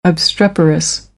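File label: "obstreperous", American English; AmericanBritish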